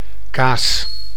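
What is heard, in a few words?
"kaas", Dutch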